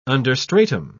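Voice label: "understratum", English, British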